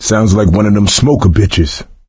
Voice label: heard smoker, coach, survivor voice; source engine; left 4 dead 2